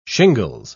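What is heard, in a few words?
"shingles", British English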